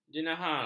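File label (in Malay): "jenahar", Malay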